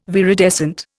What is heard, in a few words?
"viridescent", Indian